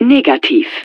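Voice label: "Negative", German; Betty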